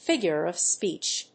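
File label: "figure of speech", English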